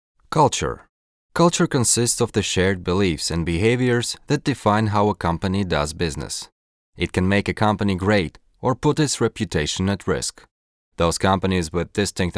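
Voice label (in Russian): английский, Иностранные дикторы; diktor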